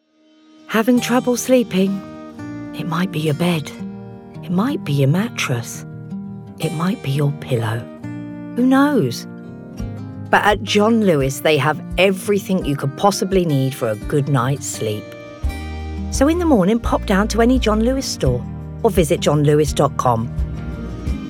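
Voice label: Warm, Calm, English; John Lewis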